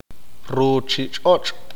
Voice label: "Mundo", Q'eqchi'

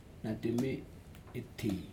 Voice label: one man, Aneityum

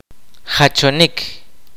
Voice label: "split", kaqchikel

